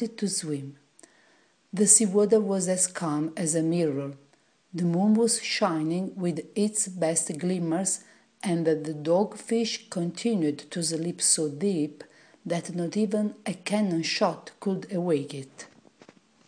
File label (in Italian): Pinocchio Inglese